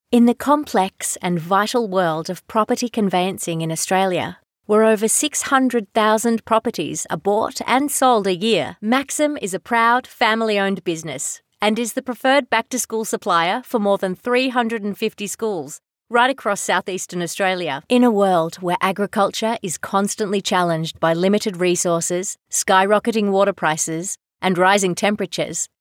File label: Corporate